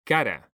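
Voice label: "CARA", Spanish, male; mekanta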